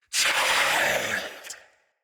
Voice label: Attack Knight Male, Battle; Mendicant

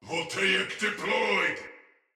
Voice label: Attack, Barks Battle Soldier; Sinecure; Ode Tech

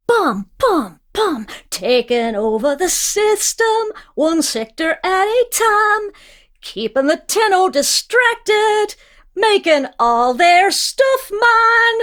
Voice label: Remind Taunt; Warframe; Corpus Lich; Persona D

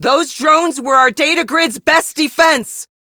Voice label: Captain Female, drones destroyed, en; Warframe; Railjack; Crp Captain

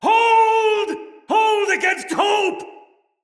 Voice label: fearful cry, soldier male; Dendrit

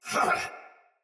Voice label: Pain Soldier Sword Agent Male

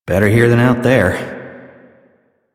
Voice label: Citizen Male, en, Mall; Warframe; Citizens

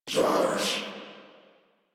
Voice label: Surprised Sniper, en

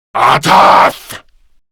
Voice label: Attack Grineer Male; Warframe; Battle Barks

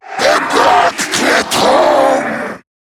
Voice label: Cover Me, en; Zariman Wraith

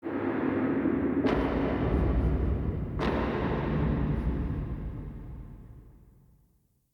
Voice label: Crypt Warrior Echo; Ambience; Champion Crypt

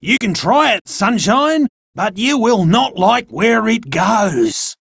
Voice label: Enemy Spotted Chipper; Warframe

